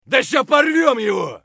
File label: bear battlecry; eft